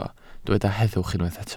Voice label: "heddwch", Welsh